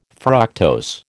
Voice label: "fructose", American